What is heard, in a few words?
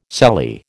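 "sallee", American English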